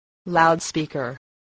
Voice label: "loudspeaker", voice

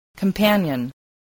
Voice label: "companion", english

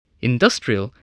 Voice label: "industrial", voice

